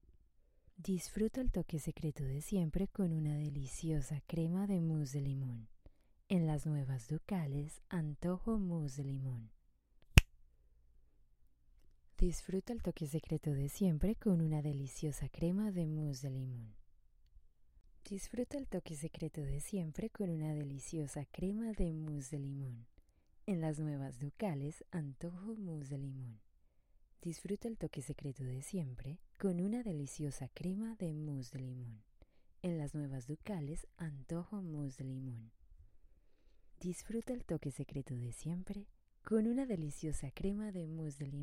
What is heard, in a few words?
spanish colombian others; colombian spanish voice actors